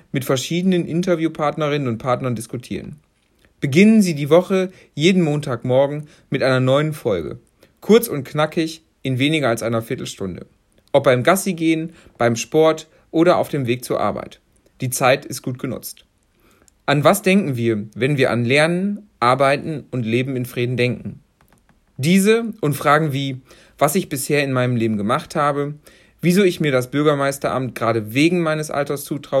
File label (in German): Podcast Begrüßung